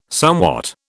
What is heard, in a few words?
"somewhat", British